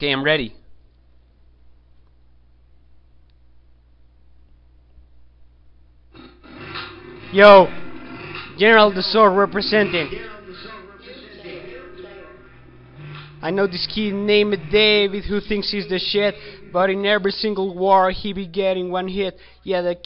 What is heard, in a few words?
rap; vocal acapellas; various acapellas